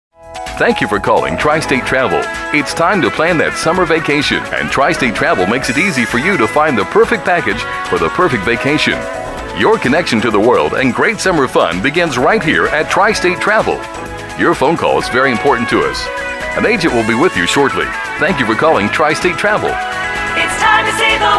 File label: On-hold (male)